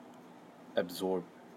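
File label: "absorb", Malaysian, male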